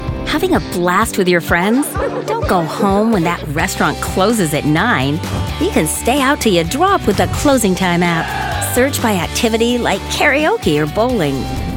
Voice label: explainers web videos; Closing Time